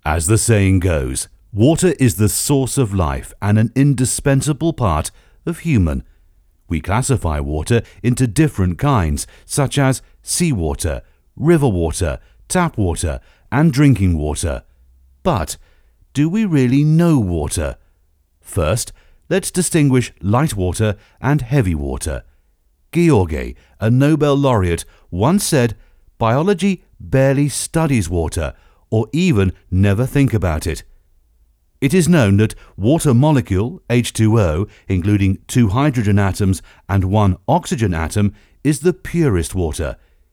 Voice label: 外籍 英语 英式 低沉 磁性

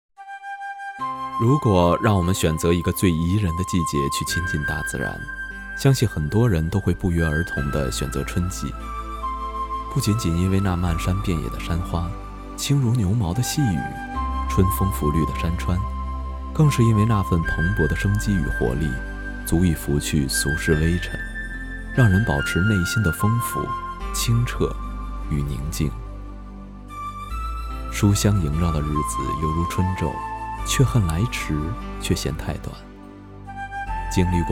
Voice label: 优美 散文

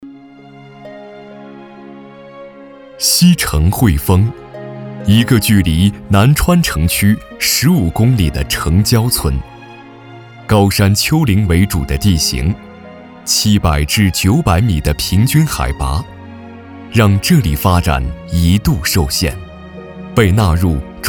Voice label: 扶贫专题记录感

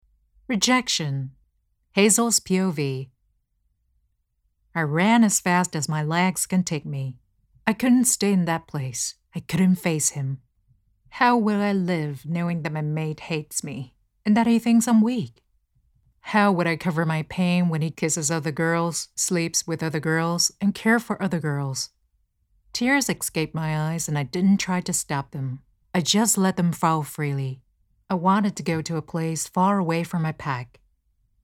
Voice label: 英有声书女性小说

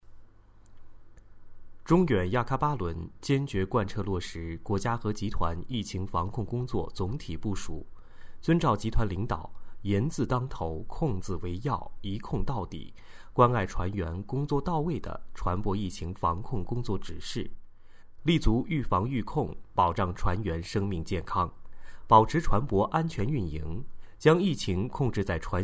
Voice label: 宣传片配音; 中远集团汇报

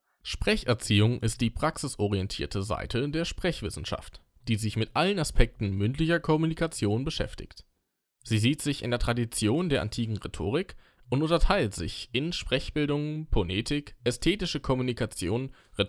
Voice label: 德语男声

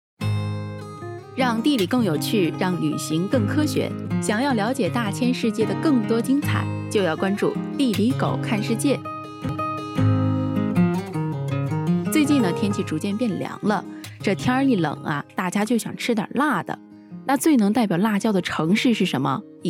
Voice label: 火锅 广播; 火锅广播

